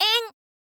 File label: "en", girl; voice